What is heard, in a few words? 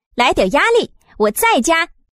Add, sound woman; goldenflower